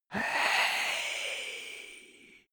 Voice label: male whisper "hey"